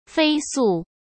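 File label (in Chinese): "飞速", Mandarin Chinese; chinese flashcards; hsk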